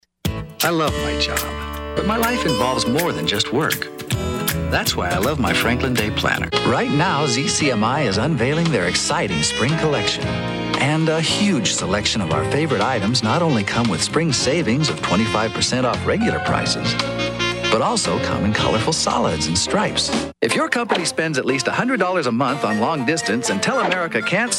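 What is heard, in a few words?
commercial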